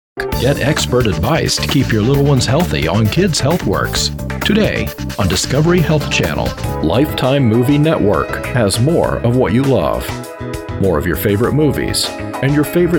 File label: Promo Trailer